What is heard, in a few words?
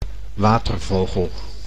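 "watervogel", Dutch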